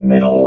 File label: "middle", robot